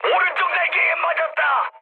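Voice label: Korean right wing mood high, speech; War Thunder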